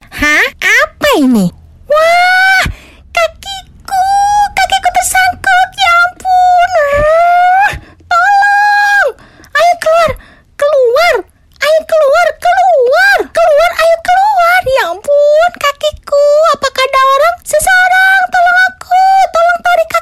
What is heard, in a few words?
Karakter, voiceover